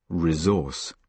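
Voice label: "resource", British English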